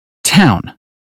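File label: "town", British phonetics; 英语音标发音（剑桥版）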